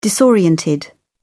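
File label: "disoriented", English, British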